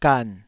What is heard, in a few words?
"gan3", Taiwanese